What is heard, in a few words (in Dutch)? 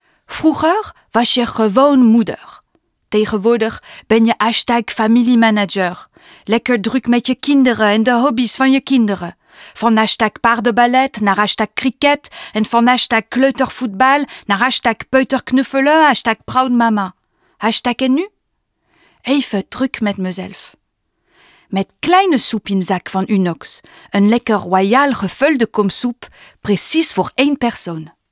Stemdemo NL met FR Accent; Unox